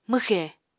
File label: "mwxè", Apatani